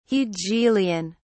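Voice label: "hegelian", British English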